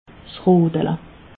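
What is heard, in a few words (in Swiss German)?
"rottele", Alsatian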